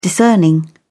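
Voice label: "discerning", British English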